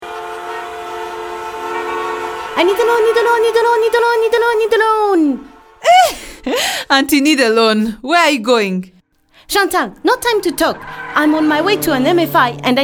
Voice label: Public Service Announcement, English; FinLit